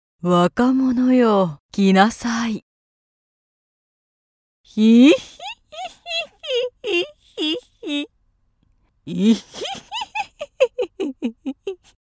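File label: japanese female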